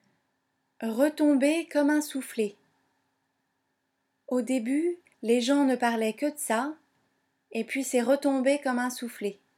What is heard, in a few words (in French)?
"souffle", French